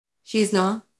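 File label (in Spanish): Voice Acting es F, Spanish, female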